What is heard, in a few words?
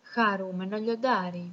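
lion, Greek; tuxpaint-stamps; animals; mammals; cats; cartoon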